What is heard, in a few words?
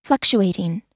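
"fluctuating", American English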